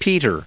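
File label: "peter", us English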